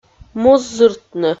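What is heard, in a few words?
"мус зыртны", Komi, female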